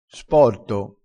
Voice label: "sporto", crespinese

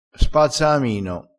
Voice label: "spazzaamino", crespinese

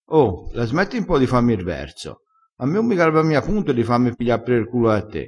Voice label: "fa r verso", crespinese

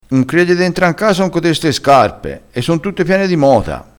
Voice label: "mota", crespinese

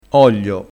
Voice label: "oglio", dialettale; vocabolario dialettale

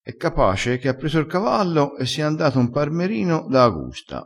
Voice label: "e capace", crespinese

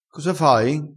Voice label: "pela", crespinese, vocabolario dialettale

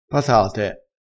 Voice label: "patate", crespinese

